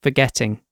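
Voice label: "forgetting", British English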